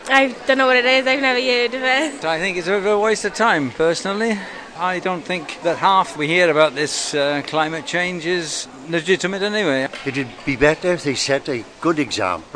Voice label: vox pop Cardiff

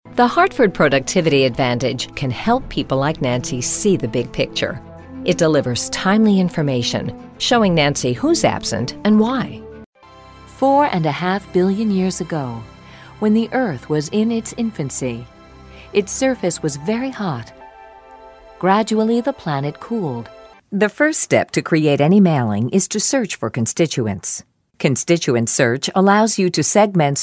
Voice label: narration voiceover

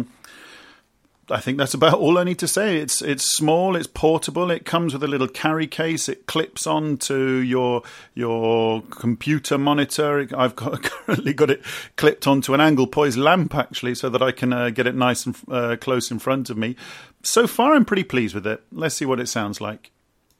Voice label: microphone for e learning; samson go

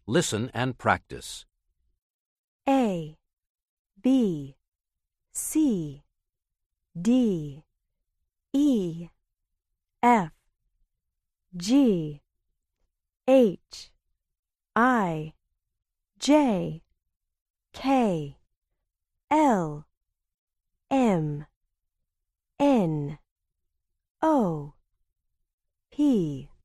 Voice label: the alphabet in english

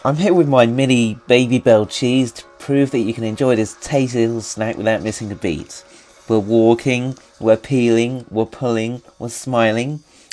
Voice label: babybel advert

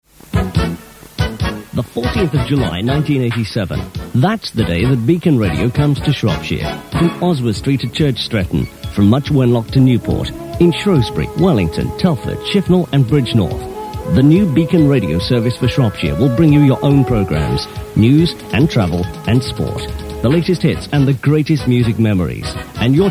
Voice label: promo; shropshire

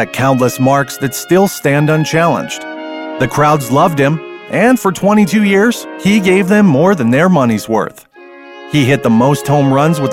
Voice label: narration

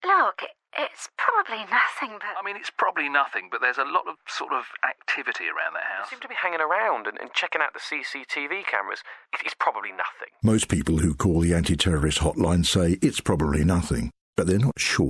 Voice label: radio advert; counter terrorism publicity campaign launched in london today

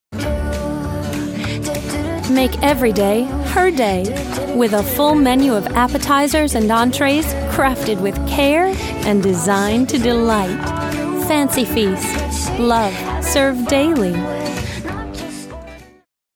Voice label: advert; fancy feast

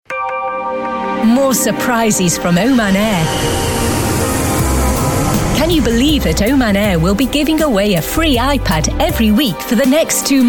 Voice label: advert; oman air

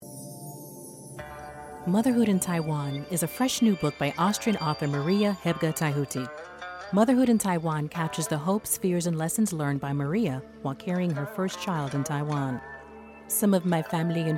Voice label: commercial

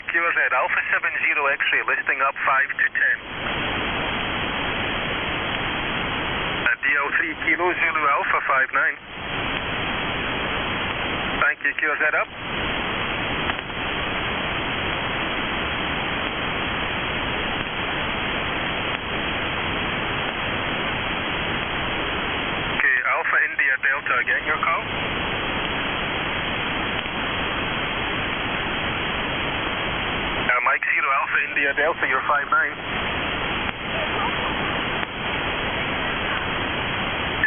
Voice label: on 20m ssb; al safliyah island as-088 qatar

